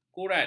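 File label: "kurrat", Malay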